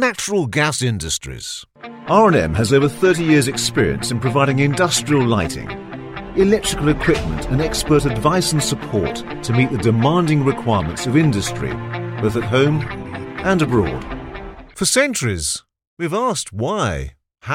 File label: Corporate Showreel